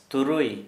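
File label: six, Santali, male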